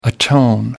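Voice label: "atone", English, uk British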